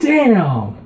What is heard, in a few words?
"damn", expletives